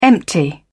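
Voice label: "empty", British English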